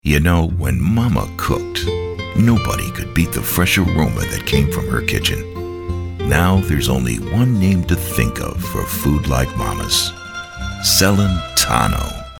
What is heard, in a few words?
voiceovers; Celentanos